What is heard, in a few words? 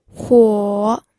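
"huo", Mandarin Chinese, third tone; pinyin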